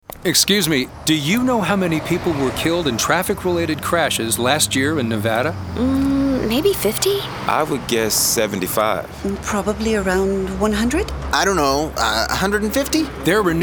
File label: Radio; NDOT; An Acceptable Goal; zerofatalities